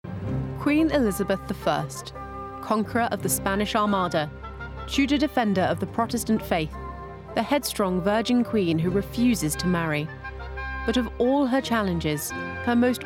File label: Documentary; Bloody Queens